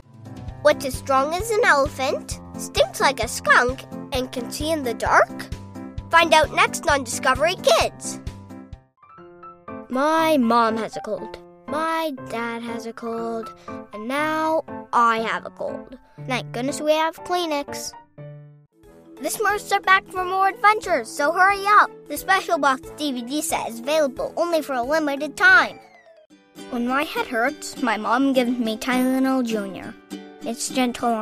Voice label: Commercial

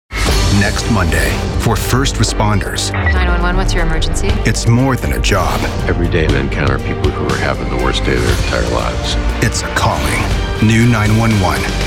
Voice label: Promo

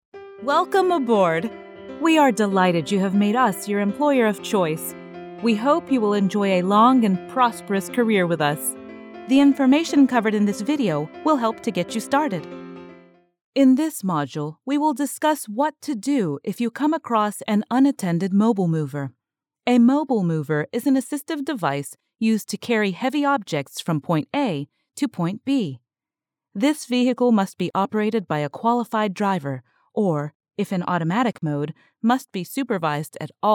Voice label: e-learning

